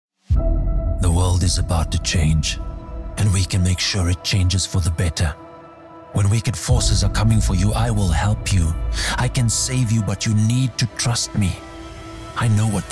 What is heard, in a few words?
English Dramatic